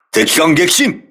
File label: voice message enemy destroyed, crew ships japanese